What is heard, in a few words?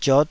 "ziot5", Taiwanese